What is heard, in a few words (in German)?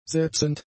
"setzend", German; adjectives